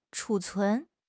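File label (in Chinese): "储存", Chinese; HSK6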